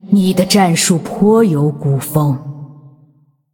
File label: Female Ghost Well Played, zh